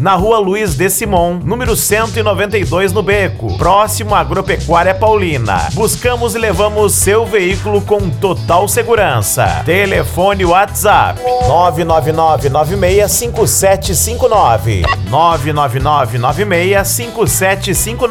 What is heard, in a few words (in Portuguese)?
Locutor Lavagem; Grala; Minas Do Leao RS